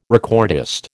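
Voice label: "recordist", American English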